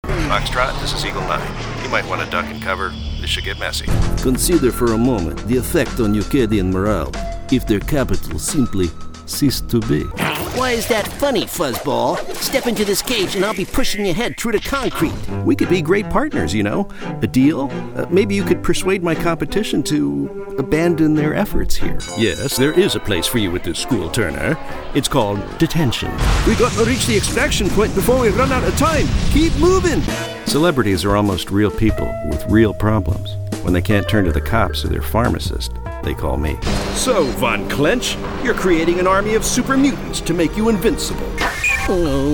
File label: Animation VideoGame